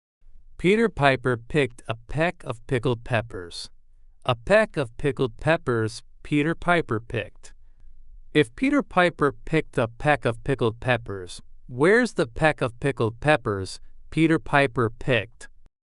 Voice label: male US, classic rhymes; Peter Piper

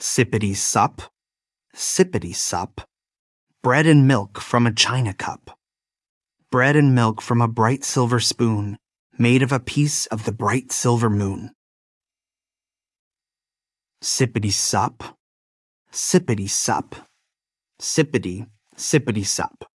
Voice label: Sippity Sippity Sup, male US

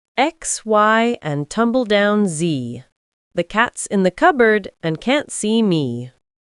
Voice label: fem brit; X Y and Tumbledown Z

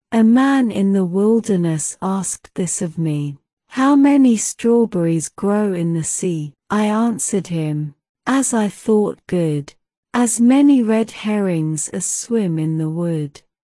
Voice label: A Man In The Wilderness fem brit

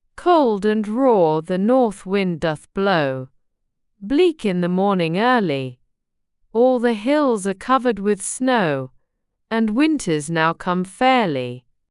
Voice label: fem brit; Cold and Raw the North Wind Doth Blow

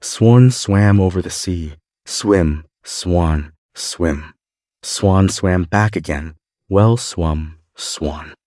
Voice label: male US, classic rhymes; Swan Swam Over the Sea

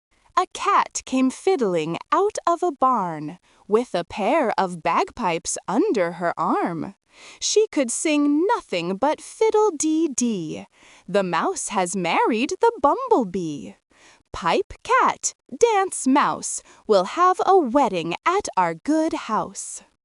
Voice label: fem us; A Cat Came Fiddling Out of a Barn

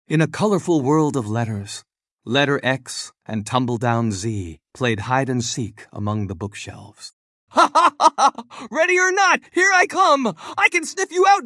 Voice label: X Y and Tumbledown Z male us story

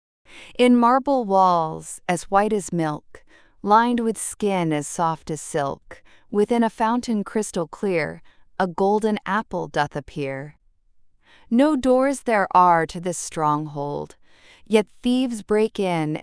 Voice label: female US, classic rhymes; In Marble Walls

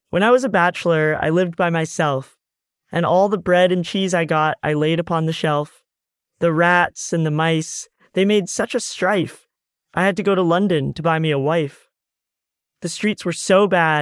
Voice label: When I Was a Bachelor male us

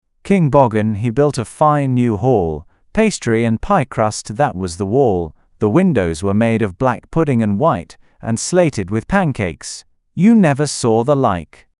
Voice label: male brit; King Boggen

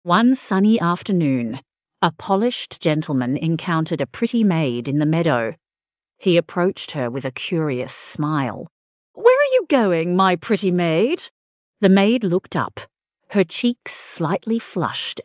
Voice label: fem aus story; Where Are You Going

